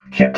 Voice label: "kit", robot